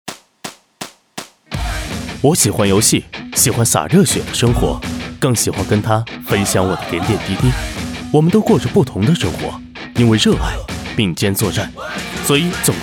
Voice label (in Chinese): 男 广告 活力、青春; OPPO